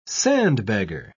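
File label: "sandbagger", British English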